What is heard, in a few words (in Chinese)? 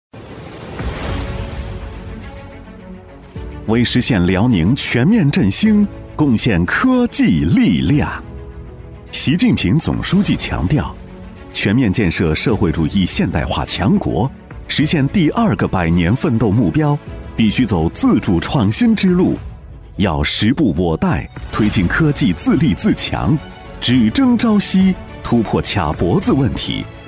男 政府专题 大气高昂; 高端质感; 大气浑厚; 辽宁科技自强